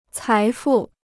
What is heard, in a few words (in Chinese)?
"财富", Chinese